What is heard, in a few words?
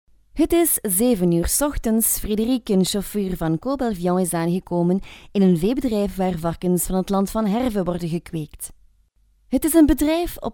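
Flemish, female; voice over talent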